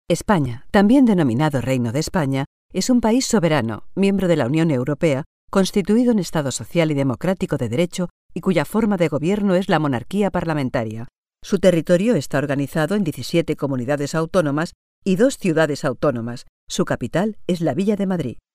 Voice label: female spanish castilian